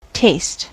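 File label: "taste", US English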